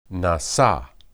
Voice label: "nasa", Hebrew

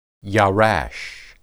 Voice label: "yarash", Hebrew

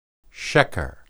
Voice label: "sheqer", Hebrew

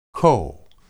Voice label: "koh", Hebrew

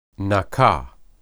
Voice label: "nakah", Hebrew

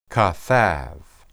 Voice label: "kathab", Hebrew

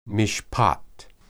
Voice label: "mishpat", Hebrew

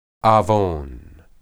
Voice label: "awon", Hebrew

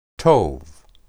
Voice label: "tob", Hebrew

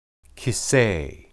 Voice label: "kisse", Hebrew

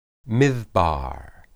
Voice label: midbar, hebrew

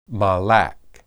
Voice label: "malak", Hebrew

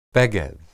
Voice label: "beged", Hebrew

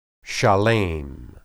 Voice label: "shalem", Hebrew

